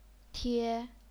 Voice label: "tie1", chinese pinyin

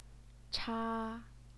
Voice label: "cha1", Chinese pinyin

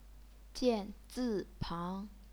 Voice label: "jian zi pang", Chinese

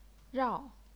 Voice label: "rao4", chinese pinyin

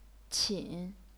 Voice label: "qin3", chinese pinyin

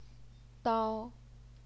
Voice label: "dao1", Chinese pinyin

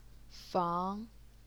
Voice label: "fang2", chinese pinyin

